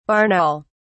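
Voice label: "barn owl", British English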